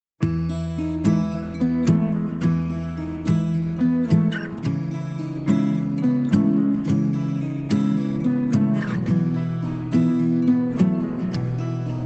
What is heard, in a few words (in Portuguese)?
aniv lgbt fem